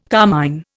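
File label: "carmine", Indian English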